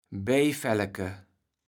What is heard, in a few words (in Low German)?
"Bejfälleke", kaevels platt